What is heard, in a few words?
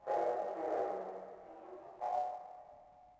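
Communication Chatter; ambience; Star Wars Obi Wan; XBOX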